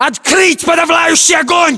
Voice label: order action suppress, english; localized english

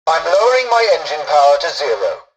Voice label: tutorial dialogue, english; War Thunder

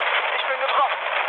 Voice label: aircraft destroyed, mood low, speech ge

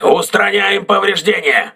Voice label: voice message repairing, crew ships russian

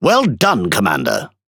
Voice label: Speech Quick Commands Good Game, EN GB; Ship; World Of Warships

